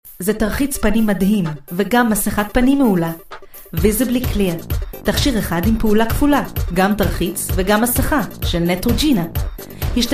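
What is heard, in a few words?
Hebrew, corporate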